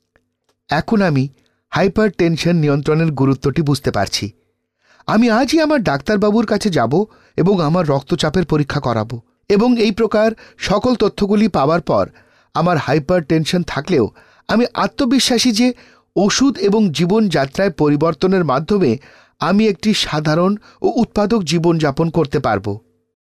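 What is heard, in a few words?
Bangla male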